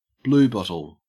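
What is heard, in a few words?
"bluebottle", English, Australian accent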